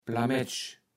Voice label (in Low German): "Plametsch", kaevels platt